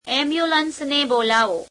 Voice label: gujarati Call the ambulance